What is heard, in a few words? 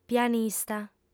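"pianista", female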